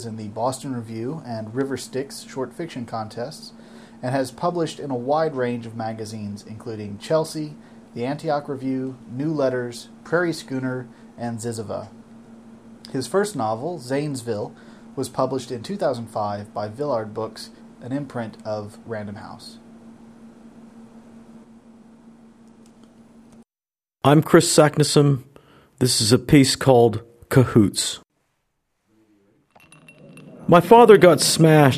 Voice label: Narrative